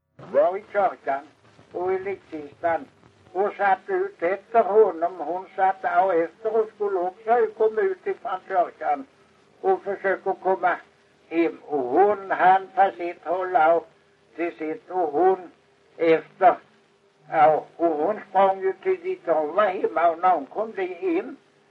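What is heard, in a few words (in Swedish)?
Kristianopel Blekinge, dialekter; Folkminnen; Svenska sagor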